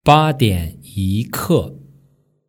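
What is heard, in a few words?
"badianyike", Mandarin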